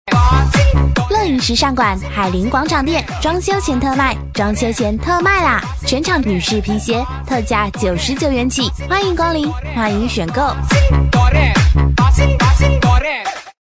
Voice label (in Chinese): 女 促销; 乐引